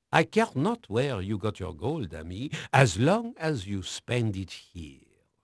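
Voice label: French male, voice; Pirates Of The Caribbean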